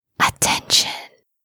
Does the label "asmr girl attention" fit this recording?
Yes